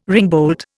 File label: "ringbolt", Indian